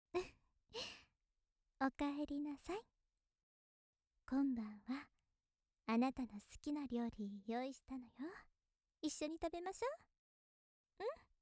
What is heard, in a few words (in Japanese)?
優しいお姉さん おかえり